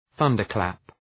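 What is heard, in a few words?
"thunderclap", English; {‘ɵʌndər,klæp}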